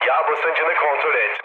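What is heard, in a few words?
damaged oil, mood low, Turkish; War Thunder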